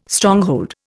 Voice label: "Stronghold", Indian